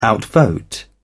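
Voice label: "outvote", English, British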